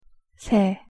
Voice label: "새", Korean; pronunciation